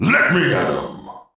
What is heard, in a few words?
voice dark knight taunt